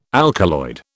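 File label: "alkaloid", British English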